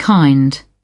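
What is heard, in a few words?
"kind", British English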